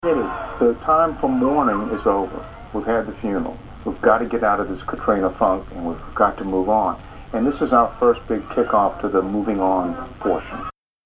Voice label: new orleans man, american